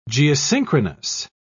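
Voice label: "geosynchronous", British English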